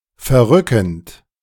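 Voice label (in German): "verrückend", German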